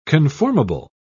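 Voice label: "conformable", British English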